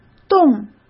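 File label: "冻" Mandarin Chinese; hsk; chinese-flashcards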